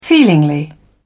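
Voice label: "feelingly", British English, dictionary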